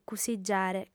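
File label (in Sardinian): "cussigiare", female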